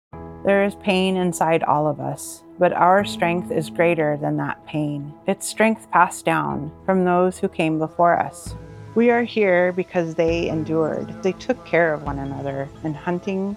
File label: RADIO SPOTS